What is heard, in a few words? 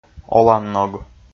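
"оланног", Komi, male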